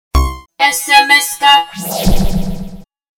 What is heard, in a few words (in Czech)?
robot